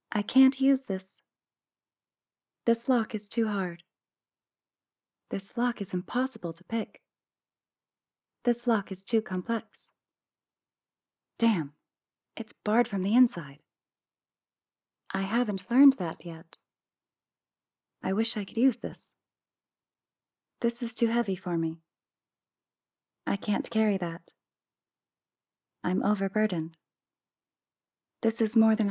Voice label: Female Protagonist Lines

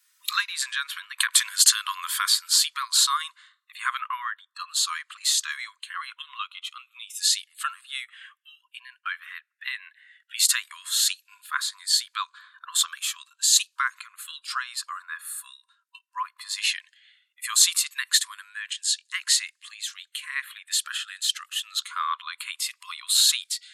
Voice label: Plane Flight Safety Announcement

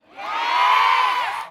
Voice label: Girls Shouting Yes

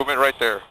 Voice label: Movement Right There Soldier Radio Talk

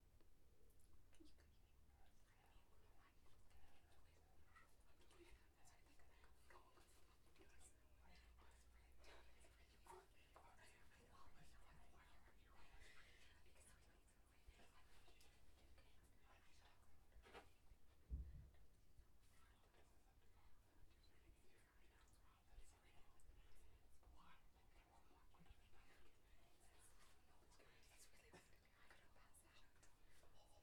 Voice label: Ambience Room With Whispering

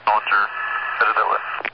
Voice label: Garbled

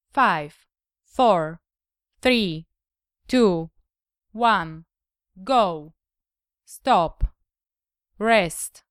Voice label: Countdown Female 5 To 1

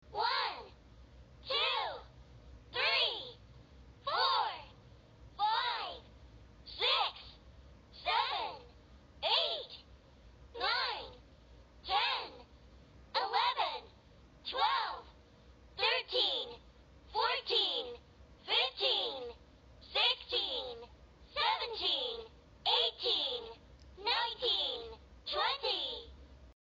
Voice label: Children Counting To Twenty

